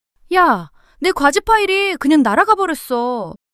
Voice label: fluster; monologue